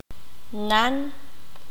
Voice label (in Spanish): "la mitad medio", qanjobal